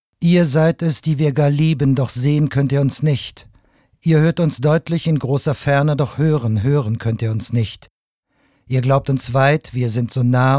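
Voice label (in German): sachlich, Männer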